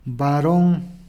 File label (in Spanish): "barón", Peru Cuzco